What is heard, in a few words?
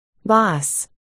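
"bas", spoken word, articulation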